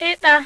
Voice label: igbo, vowels

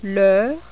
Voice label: french

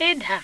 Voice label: igbo, vowels

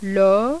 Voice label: french

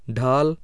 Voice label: hindi, vowels

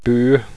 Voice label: gaelic, vowels

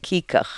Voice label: aleut

